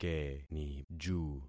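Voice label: "keniju", concatenated syllables; Affixing experiment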